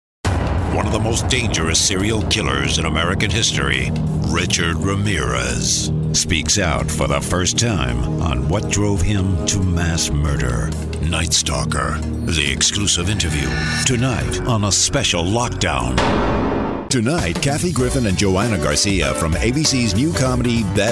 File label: us movie trailers